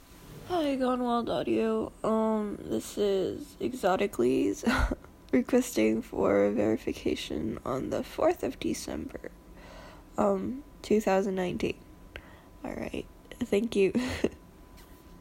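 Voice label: Verification, Female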